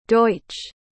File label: "deutsch", pronounce